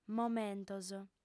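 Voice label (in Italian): "momentos", lingua sarda, fem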